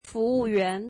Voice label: Waiter, mandarin